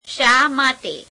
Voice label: gujarati why; શા માટે?; [śheā meāṭee?]